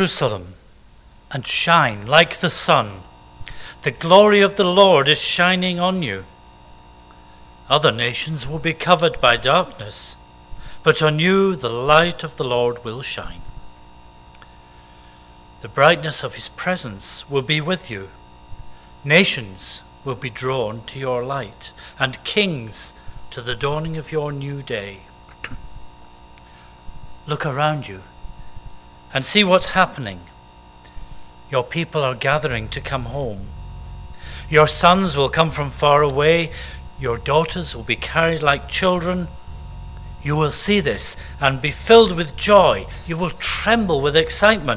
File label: read; Isaiah60 1-6; watchnight